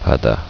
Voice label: "ocean", korean